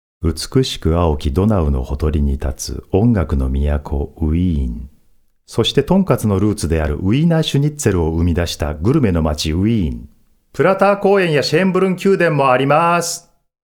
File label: Japan M